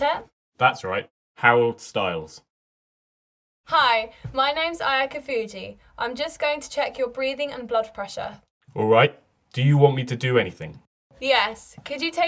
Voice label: Conversation; Bedside Manner Beginner